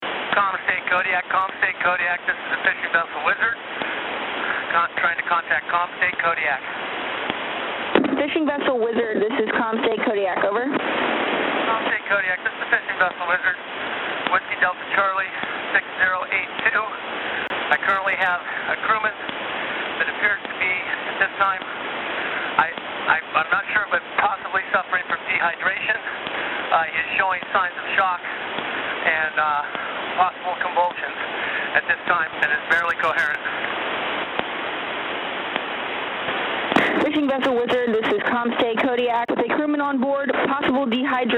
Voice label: radio call